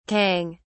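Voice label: "cong", British English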